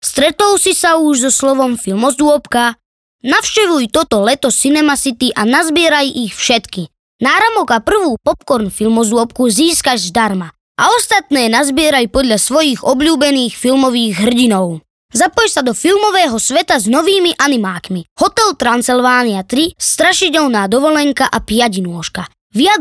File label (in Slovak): detsky hlas